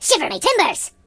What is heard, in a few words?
Brilliant, voices Pirate; hedgewars